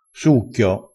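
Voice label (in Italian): "succhio", dialetto crespinese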